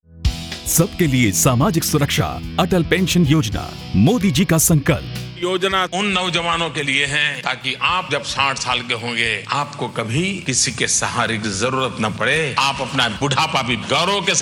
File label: Atal Pension Yojna Hindi